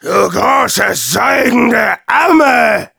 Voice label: taunts de; Demoman

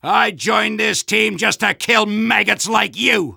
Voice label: Soldier taunts

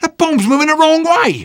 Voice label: Sniper cart going back offense soft

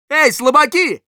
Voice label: Scout taunts, ru

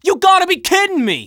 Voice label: Scout auto dejected tie

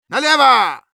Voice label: Medic head left, ru; «Налево! Налево!»

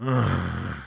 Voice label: negative vocalization, de; Sniper; "Nrrgghh."

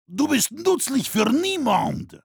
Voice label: revenge, de

